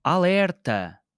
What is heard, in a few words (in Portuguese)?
"alerta!", portuguese